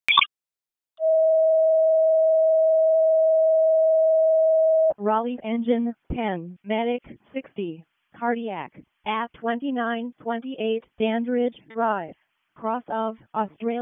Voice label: RFD ALERT, p25; wake

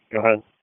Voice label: FIRE OPS, p25; wake p25

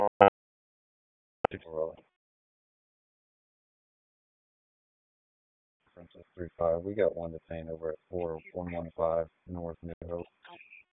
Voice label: Police Northeast District Disp, p25

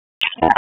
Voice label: WC EMS DISP1, P25 recordings

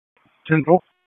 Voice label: Police Northeast District Disp, p25

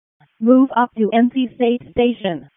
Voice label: WC EMS ALERT, p25; wake

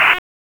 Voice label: Raleigh Police Northeast District Tac, p25; wake

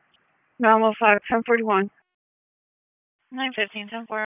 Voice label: Police Tac, p25; Wake Forest

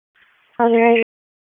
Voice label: Police Disp, p25; Wake Forest

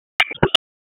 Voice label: Police North District Tac 1, p25; wake p25

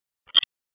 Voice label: Police North District Tac 1, p25; Raleigh; wake p25

